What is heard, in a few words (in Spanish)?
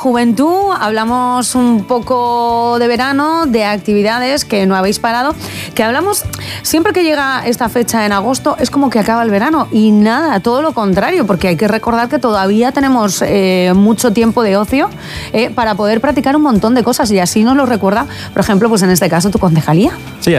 entrevista radio; radio sirena COPE